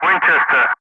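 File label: "winchester", English; Ka-50; Wingman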